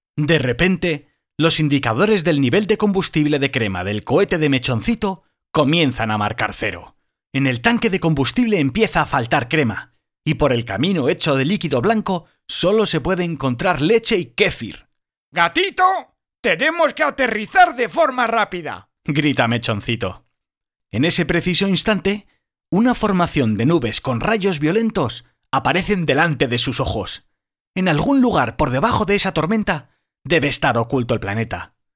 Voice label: Audiobook infantil